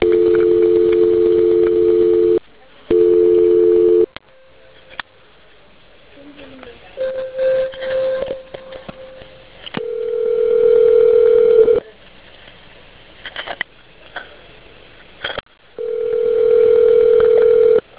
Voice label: 911 calls

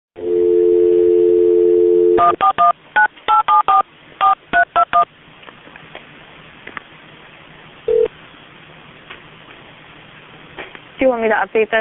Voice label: Call, dispatch; OHP to Perrysburg dispatch

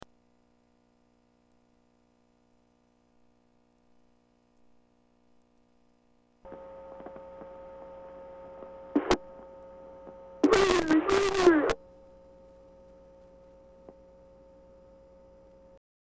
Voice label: Distress call

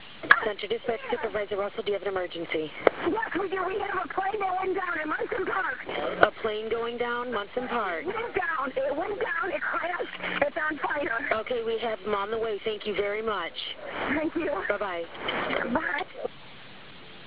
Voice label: plane crash 911 call; Munson Park

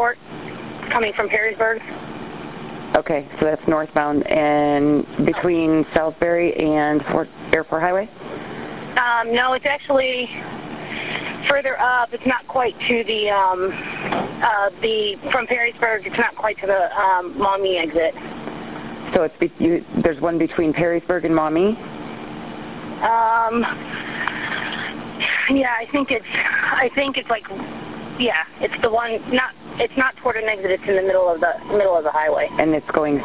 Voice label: 9-1-1 call Several callers reporting accident; I-475 wreck

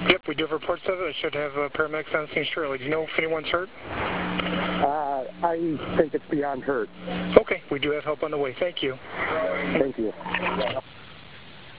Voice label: plane crash 911 call; Munson Park

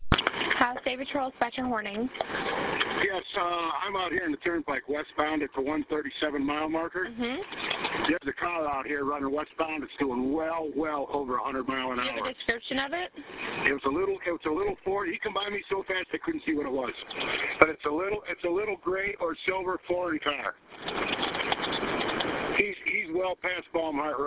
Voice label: 911 call

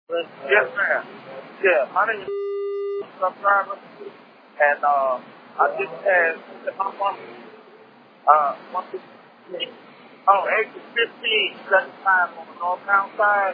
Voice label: Truck driver calls 911 reports explosion

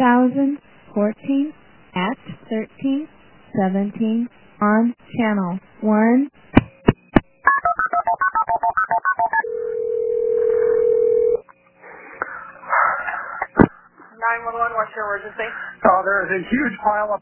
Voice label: 911 call car upside down

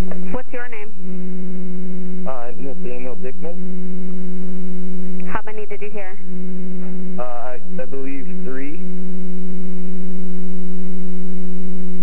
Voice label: Bar 911 call; Last Call Bar

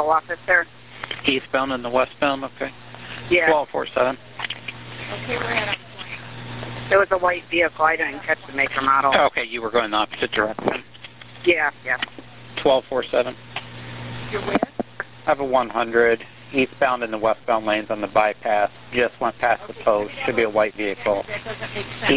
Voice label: Wrong way 911 call

